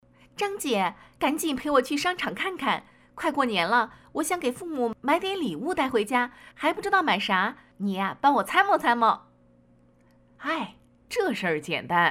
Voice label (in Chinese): 动画 角色 多角色中青年妇女